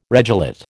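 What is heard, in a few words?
"regelate", American English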